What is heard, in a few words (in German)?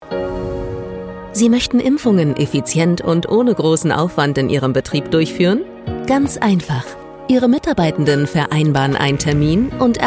Erklärfilm kurz